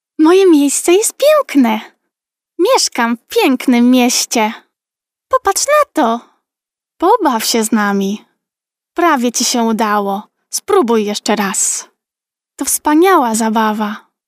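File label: Polish female children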